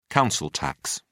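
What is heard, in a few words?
"council tax", British English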